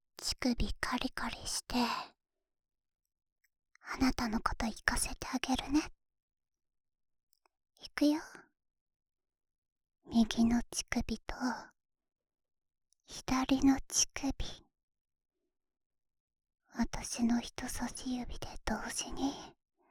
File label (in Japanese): カリカリ囁き 左耳 カウントダウン